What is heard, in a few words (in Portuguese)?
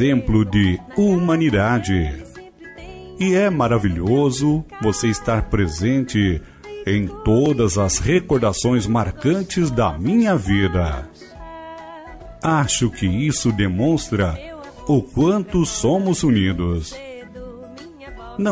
dia avo ele e ela, Dia dos Avós para Avô – Voz Masculino